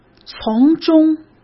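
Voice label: "从中" Mandarin Chinese; hsk; chinese-flashcards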